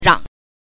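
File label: "rang", Mandarin Chinese, neutral tone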